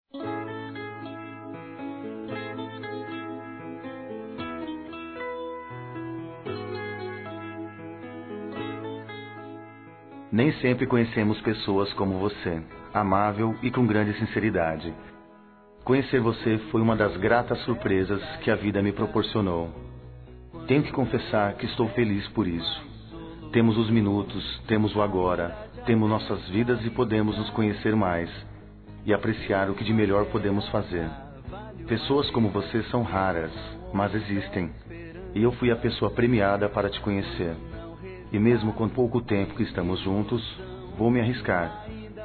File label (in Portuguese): Masculino, Dia Dos namorados; Telemensagens; Telemensagens 2017